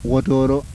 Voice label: apical alveolar, yanyuwa